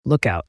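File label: "lookout", American English, male; everyone-can-use-english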